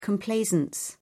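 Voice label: "complaisance", British English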